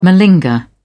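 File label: "malinger", English, uk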